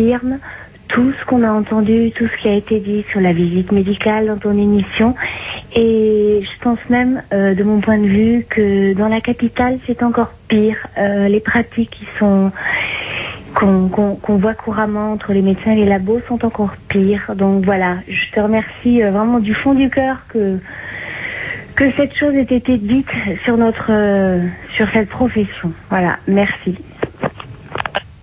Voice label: Visiteuse medicale repondeur

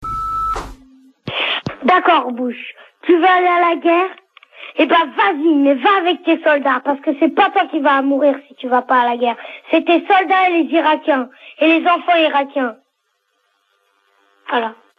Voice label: Irak repondeur

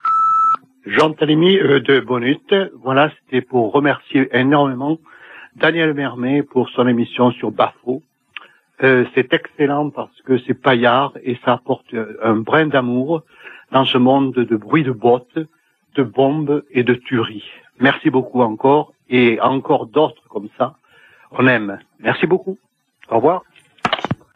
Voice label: repondeur

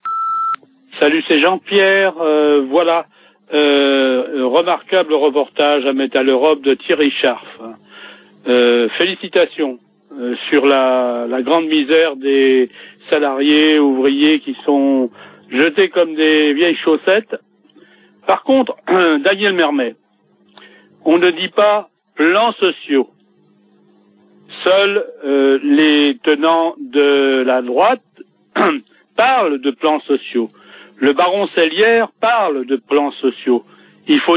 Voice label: répondeur; Metaleurop

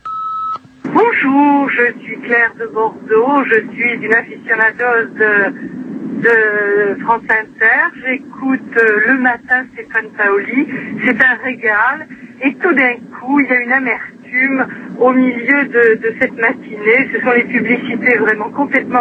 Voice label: Pub répondeur; France Inter

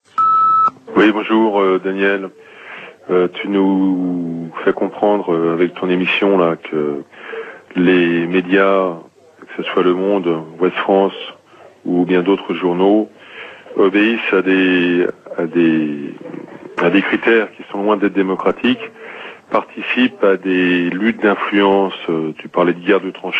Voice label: Medias alternatifs repondeur

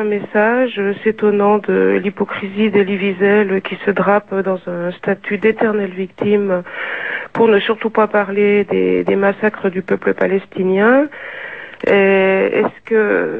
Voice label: Censure israelienne repondeur

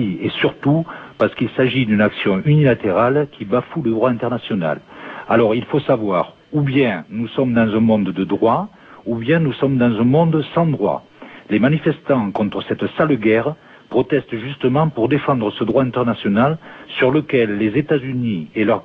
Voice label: Irak antis repondeur

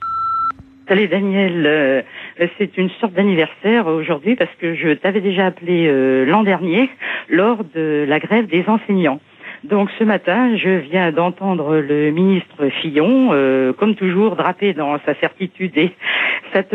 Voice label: répondeur; loi Fillon

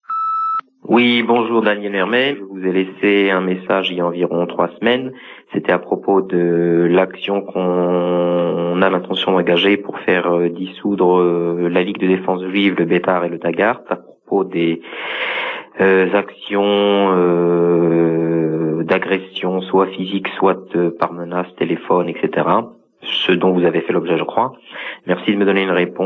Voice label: Extremistes juifs repondeur

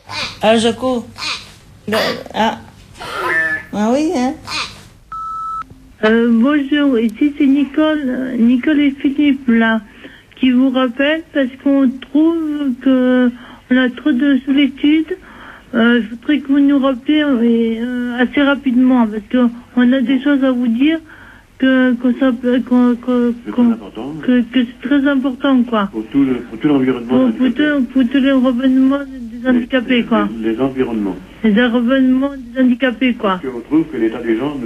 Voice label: Appel répondeur

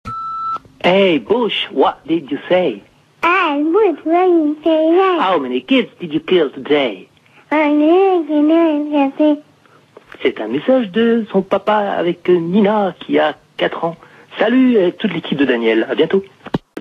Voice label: Irak repondeur

